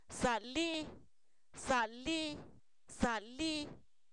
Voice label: está parado, Zapoteco, san miguel albarradas; verbos; INALI

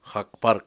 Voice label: ribera playa, Cucapá

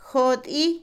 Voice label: Otomí, mujer; cerco; INALI

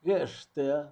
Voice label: mi red, Zapoteco, Magdalena Ocotlan; Posesivos; INALI; Haspelmath

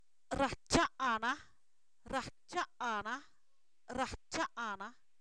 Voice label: Zapoteco, san miguel albarradas; se revienta; INALI

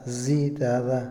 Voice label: "dios", Otomí, hombre; Religión; INALI

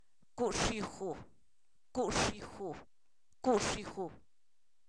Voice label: Zapoteco, san miguel albarradas; polvo; fenomenos naturales

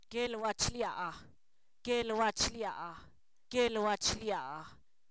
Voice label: boda, Zapoteco, san miguel albarradas; sustantivos

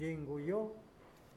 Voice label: arder, Huave

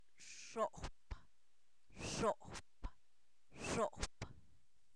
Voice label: Zapoteco, san miguel albarradas; seis; numeros

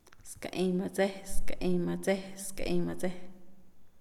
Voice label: mosquito, Pame; Animales; INALI

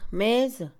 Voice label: Mixe, Mujer; mesa; Casa; INALI

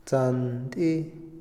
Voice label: arco, Otomí, hombre; INALI

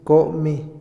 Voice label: cubrir, Otomí, hombre; INALI

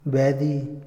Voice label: "derrota", Otomí, hombre; INALI

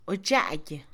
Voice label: despacio, Mixe, Mujer; INALI

